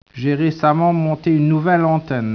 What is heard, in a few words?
speech, French